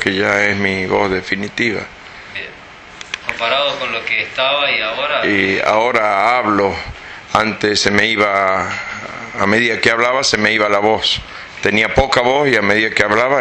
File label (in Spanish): POST OP, Sonido post operatorio, Papilomatosis. Hombre. 53 años; Score de severidad de Derkay 12; Papilomatosis severa de ambas cuerdas vocales y comisura anterior